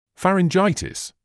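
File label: "pharyngitis", British English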